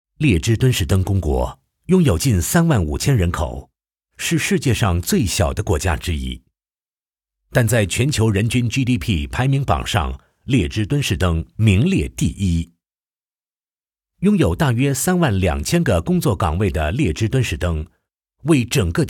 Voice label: VOICES ENGLISH Male Adult; KERSOUND